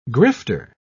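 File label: "grifter", British English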